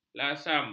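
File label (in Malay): "lasam", Malay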